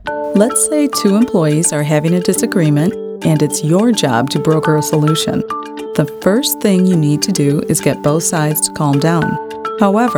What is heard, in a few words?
Narration Informative confident